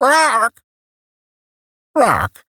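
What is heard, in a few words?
Barks Bird, English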